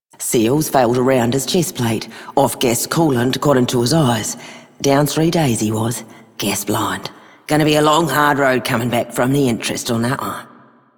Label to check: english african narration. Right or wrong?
Wrong